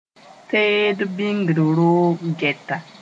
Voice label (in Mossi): "teed bĩngr roog gɛta", moore